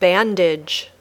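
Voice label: "bandage", English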